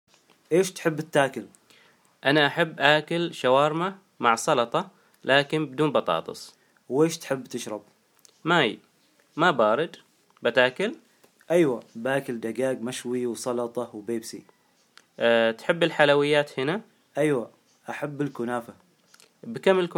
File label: Dialogue 4 - Omani Arabic